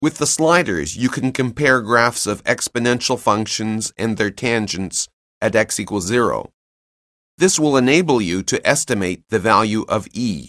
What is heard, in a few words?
narration; CALCULUS 7E; cengage